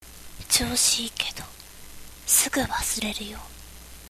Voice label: girl